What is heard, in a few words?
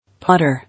"Potter", English